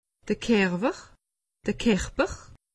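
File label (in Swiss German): "kerper", Alsatian; medecine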